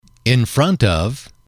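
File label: "in front of", English, male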